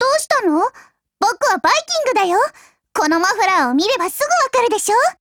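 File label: GAIN Japanese; MP446 Mod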